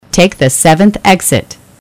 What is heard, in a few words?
Seventh, eng; waze